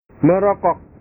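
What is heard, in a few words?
"merokok", Indonesian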